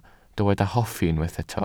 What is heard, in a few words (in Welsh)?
"hoffi", Welsh